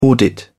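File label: "audit", British English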